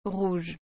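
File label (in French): "rouge", French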